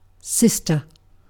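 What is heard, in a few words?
"sister", British English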